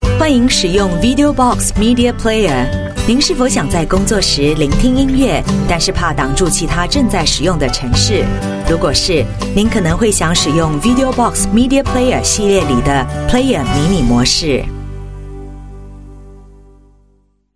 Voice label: Mandarin, China Female; VideoBox